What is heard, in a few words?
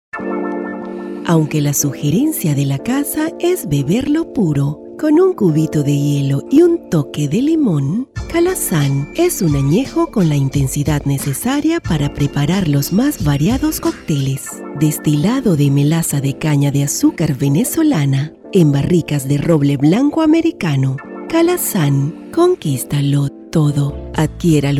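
Radio Commercials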